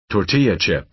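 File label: "tortilla", American English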